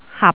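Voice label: "hap6", Cantonese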